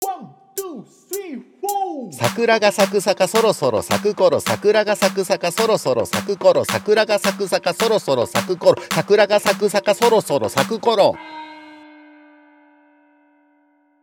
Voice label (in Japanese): 早口言葉リズム（中級編）